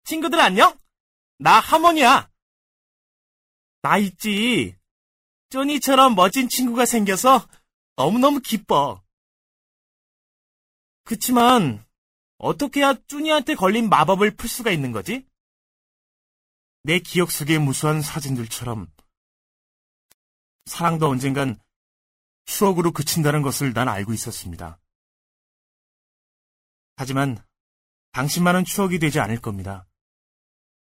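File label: Korean male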